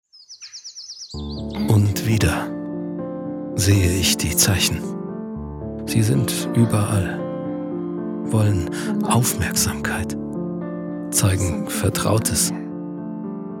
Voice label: german; German voice actor